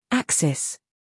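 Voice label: "scandal", American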